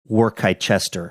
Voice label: mangled pronunciation of worcestershire